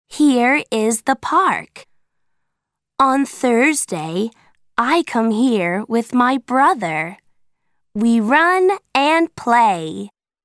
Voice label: Audio American English; Where I Live